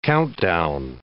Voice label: "countdown", English